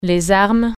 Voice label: weapons, french